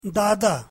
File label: urdu grandfather